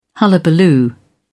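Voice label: "hullabaloo", English, uk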